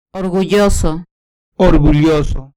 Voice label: "orgulloso", Spanish; Vocabulario

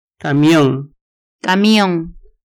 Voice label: "camion", Vocabulario

